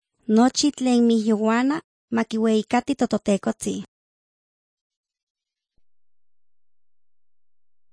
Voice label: words of life nahuatl guerrero tlapa, drama; the bible league nt